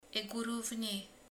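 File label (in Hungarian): "tehén", Hungarian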